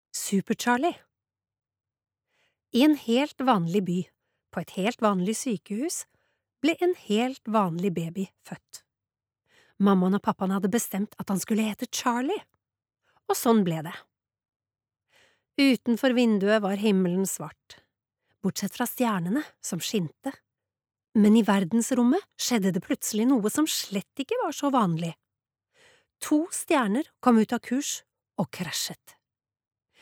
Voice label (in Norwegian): barnebok, norsk, kvinne